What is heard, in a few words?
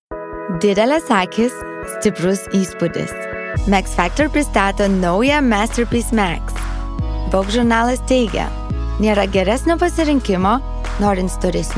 Lithuanian